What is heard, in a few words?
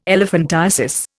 "elephantiasis", Indian